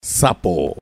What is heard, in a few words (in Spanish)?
"sapo", es